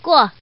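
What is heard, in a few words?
Woman, "don't want"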